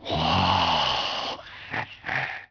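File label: male wow; People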